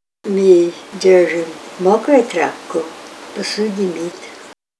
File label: dish cloth sentence, Ninilchik Russian; M'i d'érzhu mókray tr'ápku pasúd'i m'it.; We use a dry cloth to wash the dishes.; tr'ápka